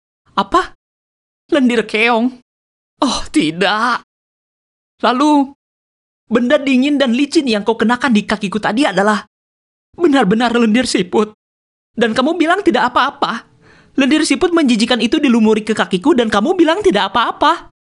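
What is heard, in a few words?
CHARACTER, pre-teen – teenagers fun character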